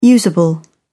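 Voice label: "usable", British English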